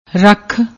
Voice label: "rakh", Punjabi